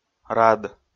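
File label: "рад", Komi, male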